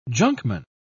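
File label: "junkman", British English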